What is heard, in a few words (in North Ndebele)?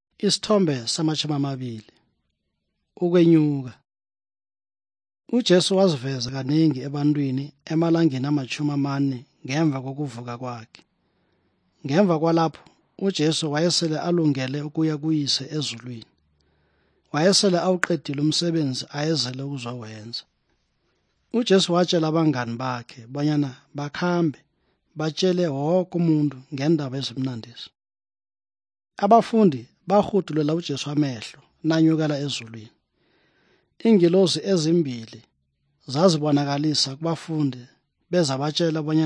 good news ndebele southern, drama; bible; for zimbabwe